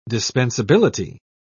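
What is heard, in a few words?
"dispensability", English, British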